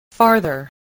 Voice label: "farther", voice